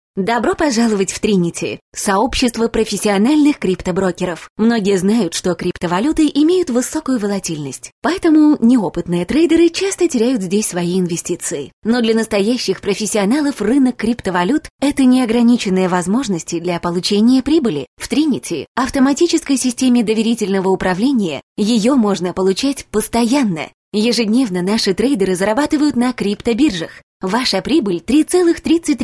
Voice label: russian promos